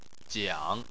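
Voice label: "jiang", Chinese, third tone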